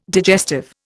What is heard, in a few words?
"digestive", Indian